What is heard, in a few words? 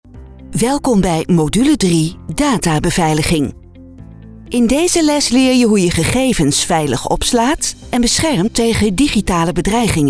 elearning mix, stemvoorbeelden